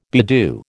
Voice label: "bedew", American